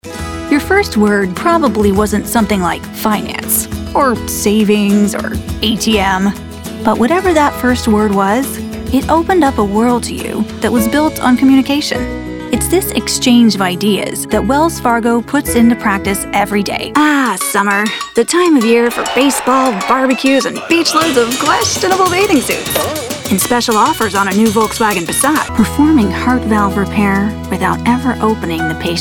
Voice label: Commercial